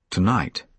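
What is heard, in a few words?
"tonight", British English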